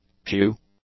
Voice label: "pew", English; nouns